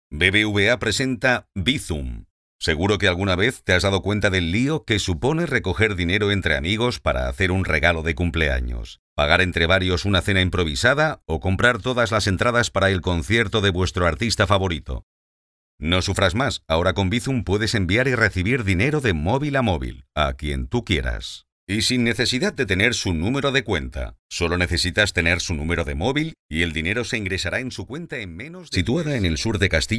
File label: spanish spain male narrative